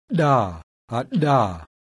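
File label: voiced dental implosive; IPA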